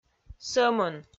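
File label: "сӧмын", Komi, female; audio dict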